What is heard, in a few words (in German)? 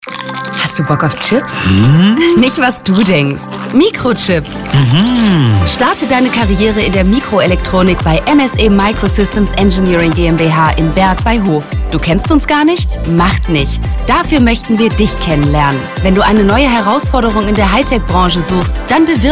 Spot; Bock auf Chips; Euroherz